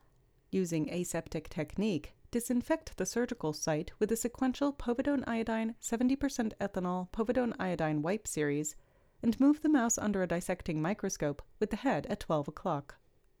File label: Voiceover